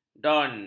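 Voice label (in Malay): "don", Malay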